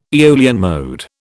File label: "Aeolian mode", British